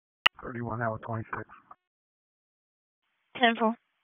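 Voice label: Dispatch, p25; WSO DISP 1; wake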